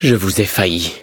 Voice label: defeat, fr; Cookie Run